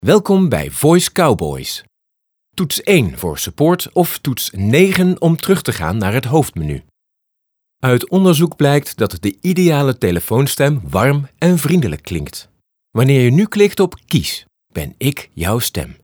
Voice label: VOICEMAIL

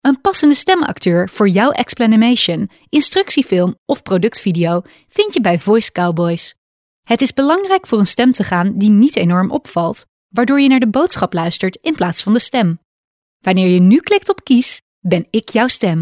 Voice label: Explanimation

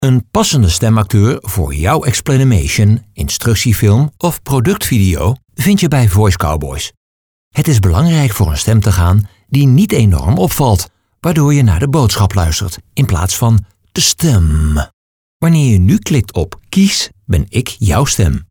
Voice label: Elearning

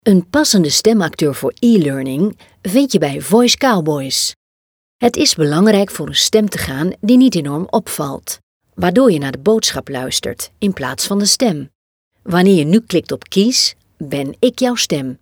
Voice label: ELEARNING

